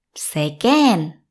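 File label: sehr gern, German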